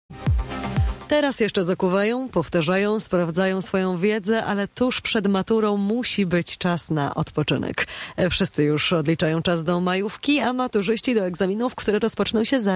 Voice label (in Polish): radio wiadomosci; radio vox fm; stres maturalny jest naturalny